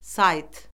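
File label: "sayt", Azerbaijani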